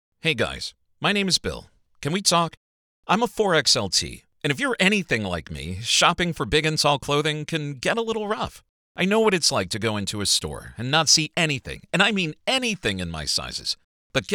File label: Spot; The Winston Box